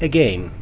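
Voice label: "again", New Zealand, male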